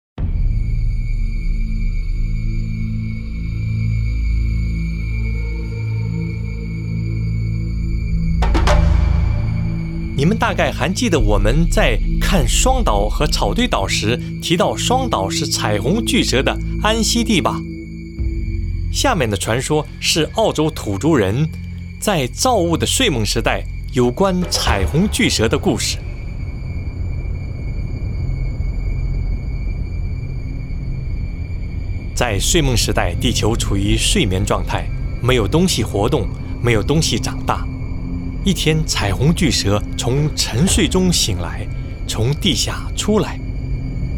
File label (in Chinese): Rainbow Serpent Mandarin; Welcoming International Guests